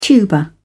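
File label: "tuber", British English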